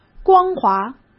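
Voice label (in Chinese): "光滑", Mandarin Chinese; hsk; chinese-flashcards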